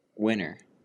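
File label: "winner", American English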